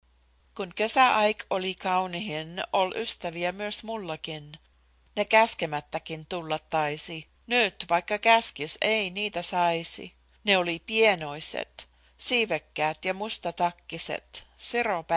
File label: Audiobook